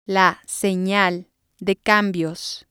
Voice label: turn Signal, spanish

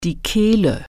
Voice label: "throat", German

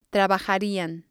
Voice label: "trabajarian", Spanish

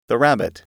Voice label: "rabbit", English

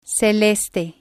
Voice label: "celeste" Spanish

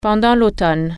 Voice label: "during fall", french

